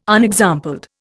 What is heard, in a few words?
"unexampled", Indian